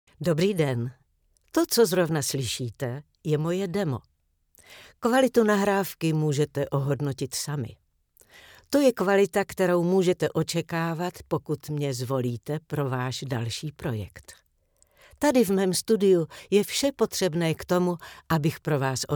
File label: czech female